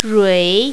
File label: "rui3", mandarin, female